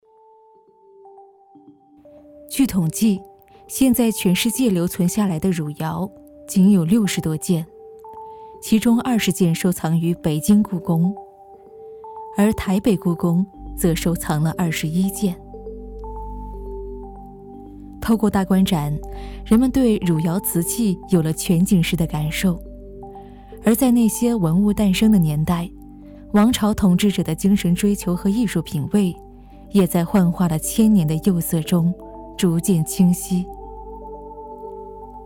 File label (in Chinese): 纪录片文物